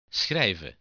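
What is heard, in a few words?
"schrijven", Dutch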